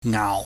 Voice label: "ngau", pingyam

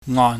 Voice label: "ngon", Chinese

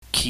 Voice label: "kit", chinese

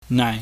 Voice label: "nai", pingyam

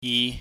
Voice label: "yi", pingyam

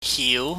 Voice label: "hiu", chinese

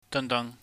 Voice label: "dangdang", cantonese

